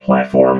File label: "platform", robot